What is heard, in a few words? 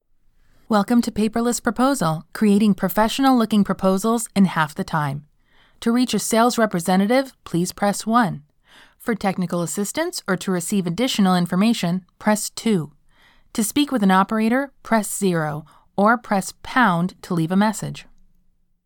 English American IVR